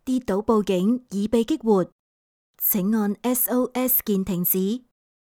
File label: Cantonese